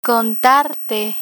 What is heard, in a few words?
"contarte", Spanish; listening comprehension; learn spanish